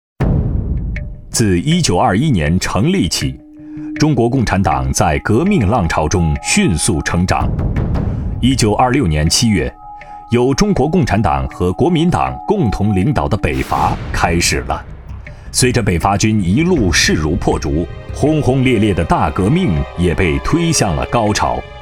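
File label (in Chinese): 电台短片解说; 金鹰955电台历史的足迹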